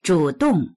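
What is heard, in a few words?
"zhǔdòng" Mandarin Chinese; 主动; 自発的な、能動的な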